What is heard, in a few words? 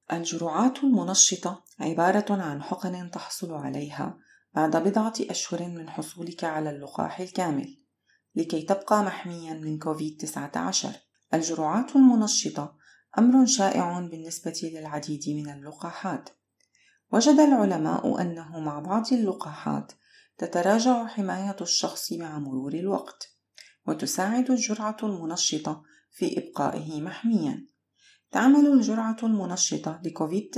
Booster PSA ARABIC